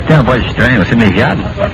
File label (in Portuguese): voz estranha